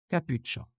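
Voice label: "Capucha", Occitan Languedocien; Prêts pour l’Òc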